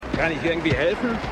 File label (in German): Mann; Earth 2